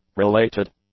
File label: "related", English; adjectives